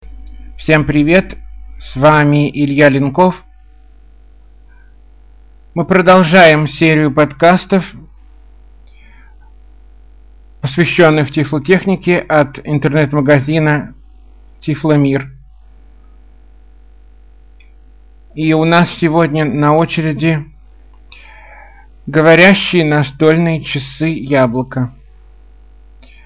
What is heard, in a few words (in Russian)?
demonstraciya govoryashego budilnika; yabloko